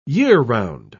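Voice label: "year-round", British English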